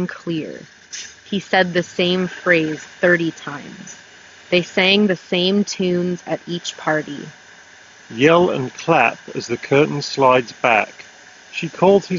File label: Street microphone sample; Sennheiser Momentum 4 Wireless